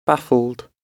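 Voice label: "baffled", British English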